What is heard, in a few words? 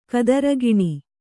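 "kadirugiṇi" Kannada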